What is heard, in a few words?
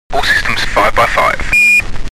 science fiction vocals astronaut chatter countdown